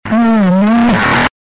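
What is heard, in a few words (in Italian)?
"mamma", Italian; Mamma è là!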